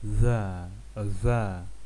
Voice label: Labialized voiced dental fricative